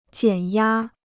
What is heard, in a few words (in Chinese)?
"减压", Chinese